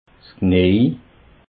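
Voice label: "knej", Alsatian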